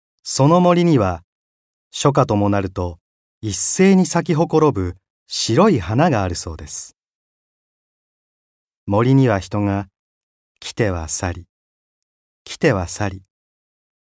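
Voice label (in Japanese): 解説ナレーション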